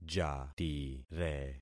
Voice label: "jatire", concat syllables; Affixing experiment